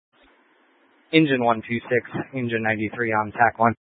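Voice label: Incident Tactical 1, Scanner audio recording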